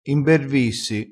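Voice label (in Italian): "imbervissi", crespinese dialettale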